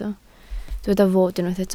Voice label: "fod", Welsh

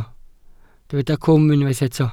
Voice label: "cwm", Welsh

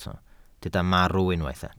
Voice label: "marw", welsh

